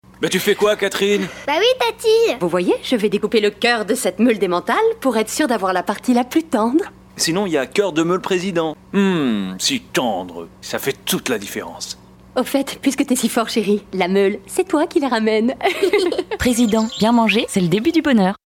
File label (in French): pub comedie; president